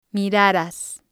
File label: "miraras", spanish